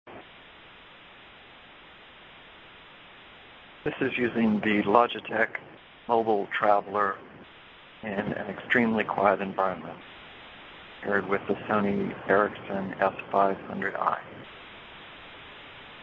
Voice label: Logitech Mobile Traveller inside, cell phone headsets